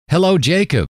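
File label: "hello", male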